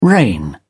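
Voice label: "reign", British English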